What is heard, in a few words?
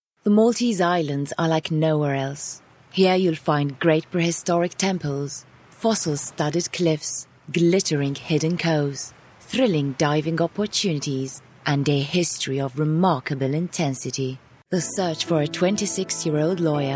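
Maltese English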